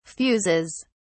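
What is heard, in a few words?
"fuses", British English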